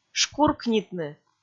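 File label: "шкуркнитны", Komi, female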